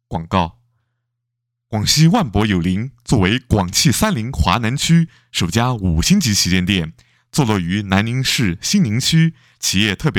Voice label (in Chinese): 广告